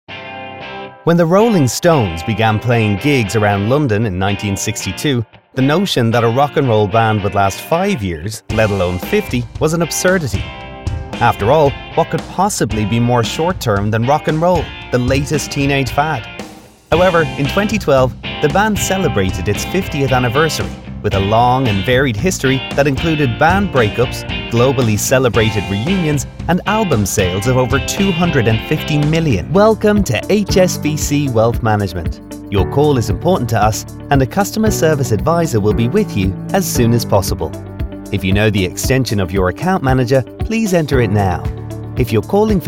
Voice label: British Mid-Atlantic Narrative; English (British)

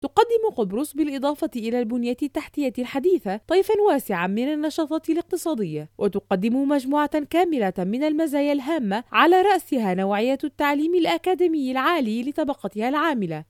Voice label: formal Arabic Documentary

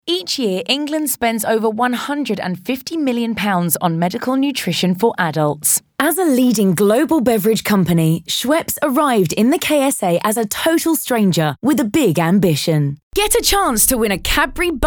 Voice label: DRY Voiceover

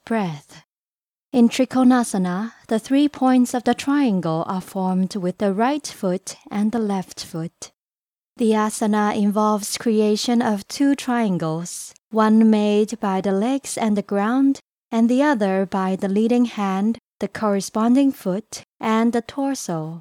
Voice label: English soothing Yoga instruction

